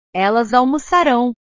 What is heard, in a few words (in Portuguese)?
"elas almocarao", portugues